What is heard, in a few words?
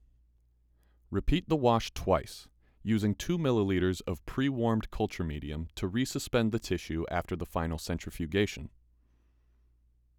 voiceover